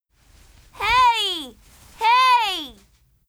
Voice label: FEMALE Emotion